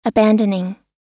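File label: "abandoning", American English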